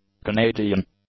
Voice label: "Canadian", English; adjectives